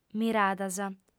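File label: "miradas" Sardinian, female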